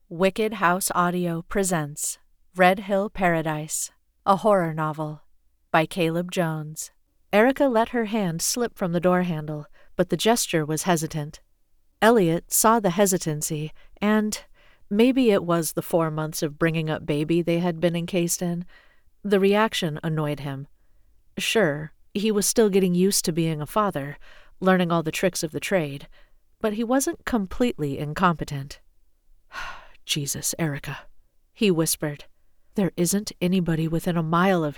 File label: Audiobook Fiction